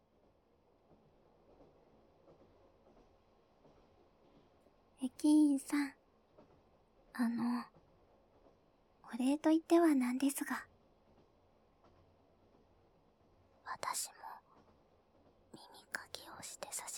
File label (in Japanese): 女性の耳かきボイスbgmなし, ASMR